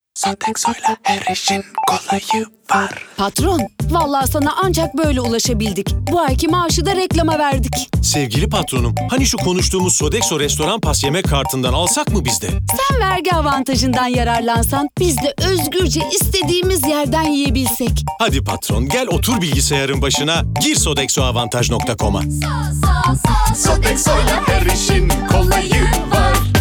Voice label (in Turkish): enerjik esprili